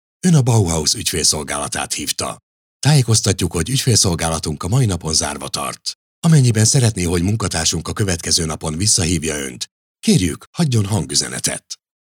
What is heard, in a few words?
ivr